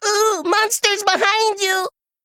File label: Male Kobold